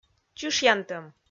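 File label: "чышъянтӧм", Komi, female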